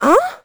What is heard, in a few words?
confused; Crypt of the NecroDancer